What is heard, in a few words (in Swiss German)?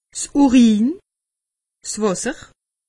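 "urin", Alsatian; medecine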